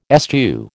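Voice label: "eschew", American English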